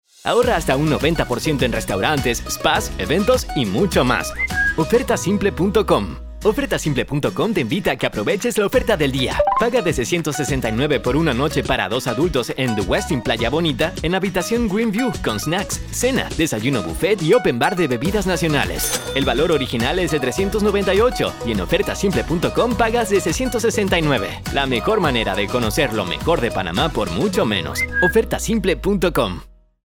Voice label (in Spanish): Locutor Promo Radio Cuñas de Radio; Panama